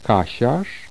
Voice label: polish, vowels